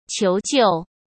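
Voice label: "求救", Mandarin Chinese; chinese flashcards; hsk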